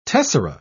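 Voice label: "tessera", English, British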